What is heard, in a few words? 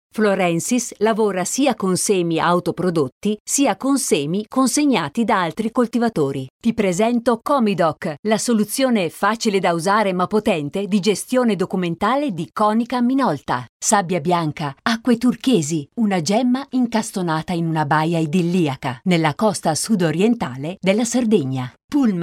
italian female, corporate